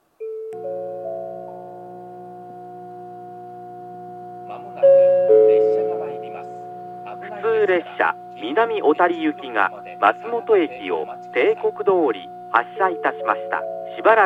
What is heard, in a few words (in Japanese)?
下り松本駅発車案内放送 普通南小谷行の放送です。; 番線を案内しないので棒線化前の２番線の放送と同じです。